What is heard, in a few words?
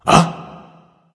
surprise; smite; poseidon